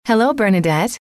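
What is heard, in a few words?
hello, female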